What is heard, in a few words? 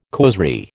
"causerie", American